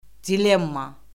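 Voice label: "dilemma", Azerbaijani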